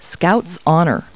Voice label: people, kid